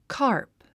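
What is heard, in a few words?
"carp", English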